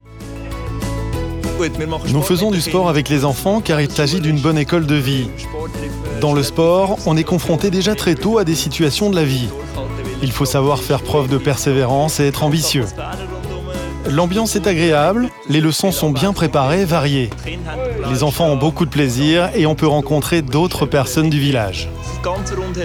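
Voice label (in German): OFF CH FR, französisch schweiz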